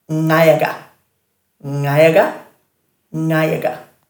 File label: "ngayaga", Dhurga